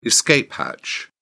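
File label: "escape hatch", British English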